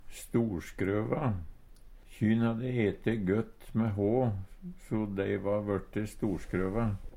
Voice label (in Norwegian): storskrøva, Numedalsmål; Adjektiv